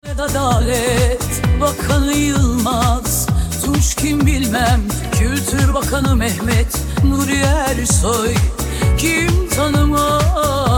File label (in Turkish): Kadin